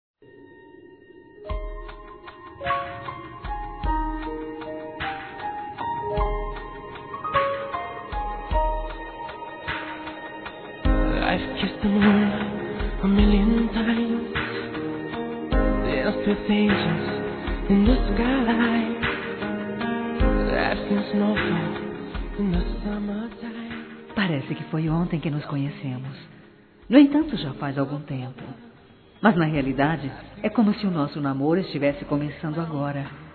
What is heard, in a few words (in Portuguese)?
DECLARAÇÃO P ESPOSO F; Telemensagens; Declaração de amor; Telemensagens 2017